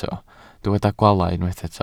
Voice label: "gwaalau", welsh